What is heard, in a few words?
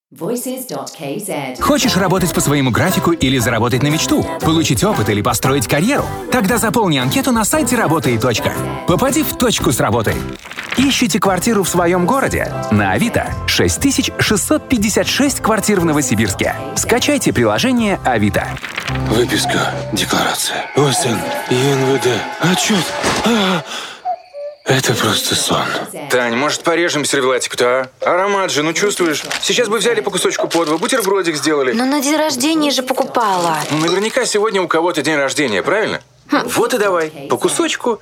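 Russian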